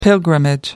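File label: "pilgrimage", English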